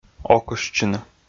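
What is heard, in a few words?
"окыштчыны", Komi, male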